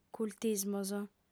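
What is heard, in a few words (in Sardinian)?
"cultismos", female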